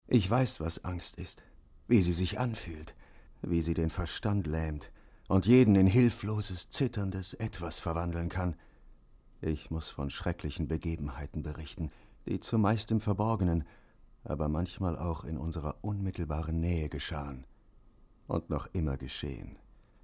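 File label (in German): Erzählung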